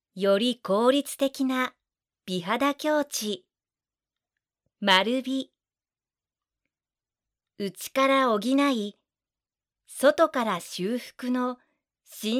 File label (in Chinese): 日语女 外语 广告300每分; 小语种